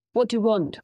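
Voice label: Voice Acting es, female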